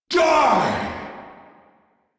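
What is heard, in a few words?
Attack, English; Warframe; Captain Vor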